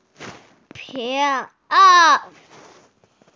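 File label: "pi2ao3", Mandarin Chinese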